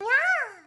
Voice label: anime cute nyaa

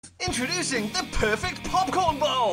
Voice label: perfect popcorn infomercial

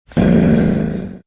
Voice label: growl

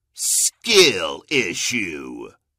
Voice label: announcer skill issue; halo

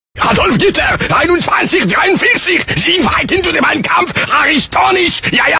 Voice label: angry german

